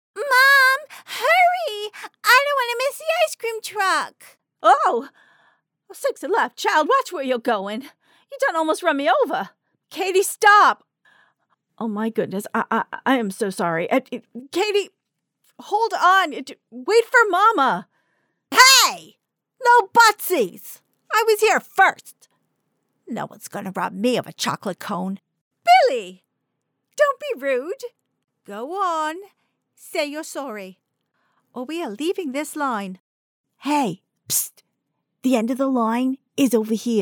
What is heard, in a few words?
More Character Samples